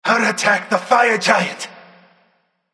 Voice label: VOX Self Attack; Smite; Warp Tech Janus; VGS